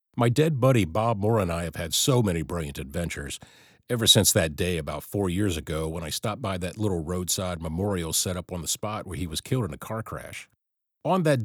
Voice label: Audiobook Narration